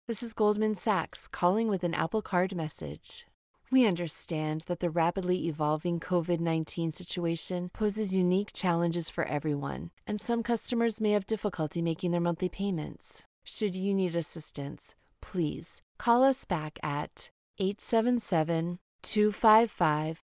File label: Dry